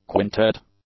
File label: "quintet", English